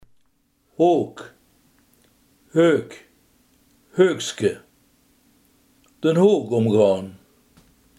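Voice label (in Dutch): hoek, Limburgse gesproken woordenboeken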